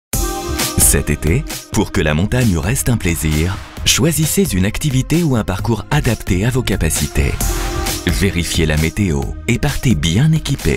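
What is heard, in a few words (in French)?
informatif rassurant; INFO.GOUV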